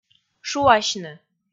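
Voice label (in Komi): "шуасьны", Komi, female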